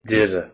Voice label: "Doire", Irish